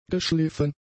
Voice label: "geschliffen", German; adjectives